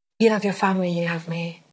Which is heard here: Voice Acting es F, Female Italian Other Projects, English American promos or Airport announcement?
Voice Acting es F